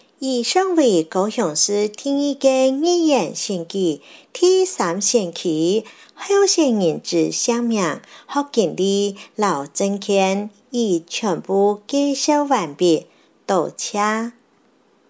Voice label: 客語; 第三選區

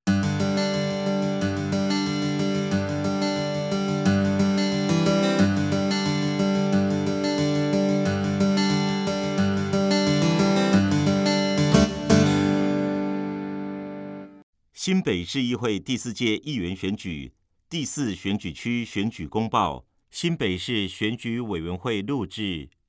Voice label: 國語; 新北市; 有聲公報; 市議員

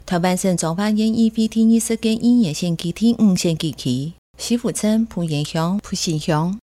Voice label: 前言 客語; 彰化縣; 縣議員; 第五選舉區; 有聲公報

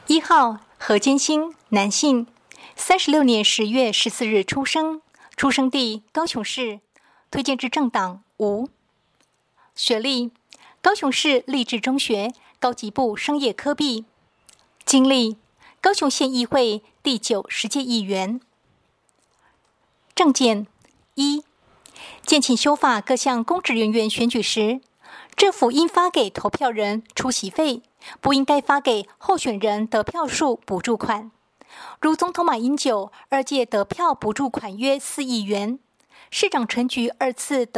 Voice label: 國語; 第五選區

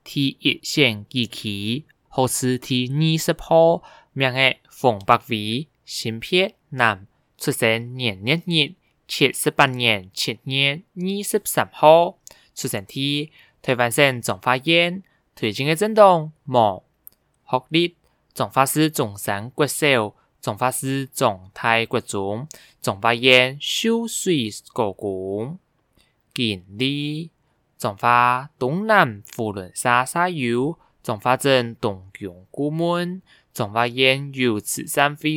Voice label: 客語; 彰化縣; 有聲公報; 縣議員; 第一選區

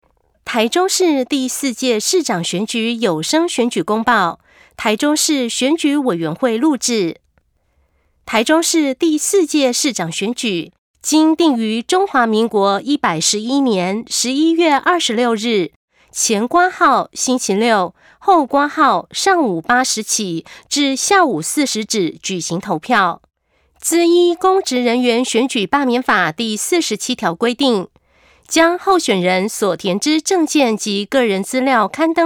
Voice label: 市長 前言 國語; 臺中市; 有聲公報

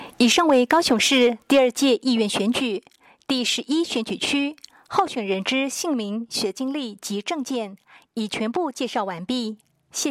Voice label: 國語, 有聲選舉公報; 高雄市; 高雄市市長議員有聲選舉公報; 第十一選區

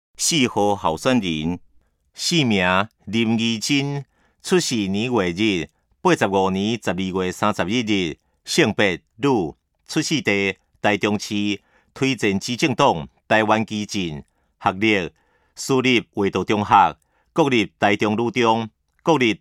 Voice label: 台語; 臺中市; 有聲公報; 市議員; 第12選區

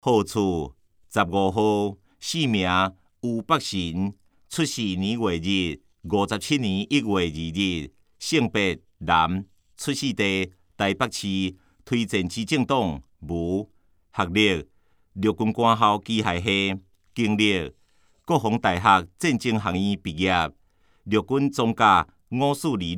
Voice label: 台語, 有聲公報; 市議員

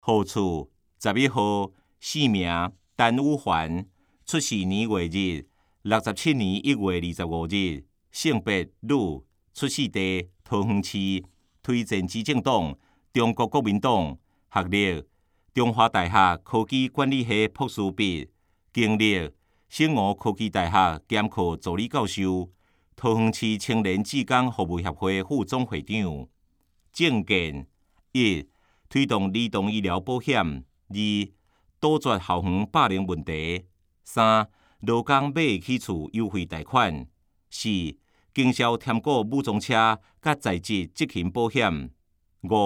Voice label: 台語; 有聲公報; 市議員

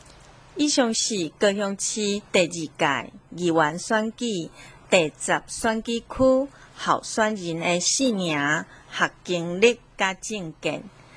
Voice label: 台語; 高雄市; 高雄市市長議員有聲選舉公報; 第十選區

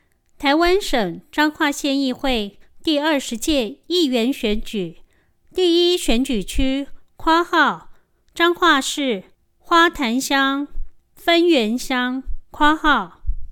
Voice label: 前言 國語; 彰化縣; 縣議員; 第一選區; 有聲公報

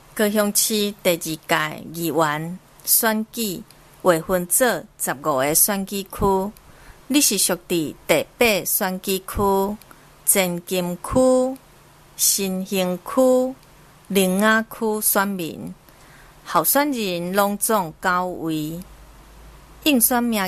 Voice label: 台語, 前言, 有聲選舉公報; 高雄市; 高雄市市長議員有聲選舉公報; 第八選區

